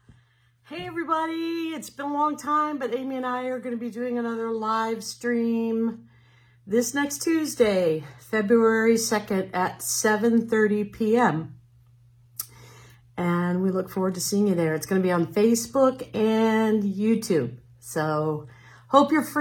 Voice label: promo